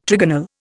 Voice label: "trigonal", Indian